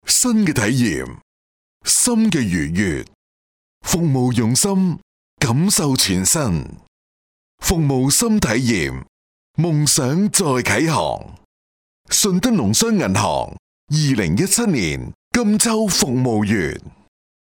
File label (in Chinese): 男粤 广告 银行, 粤语; 银行品牌